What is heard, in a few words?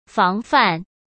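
"防范", Mandarin Chinese; chinese flashcards; hsk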